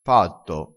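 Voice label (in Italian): "patto", dialettale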